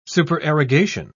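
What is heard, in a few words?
"supererogation", English, uk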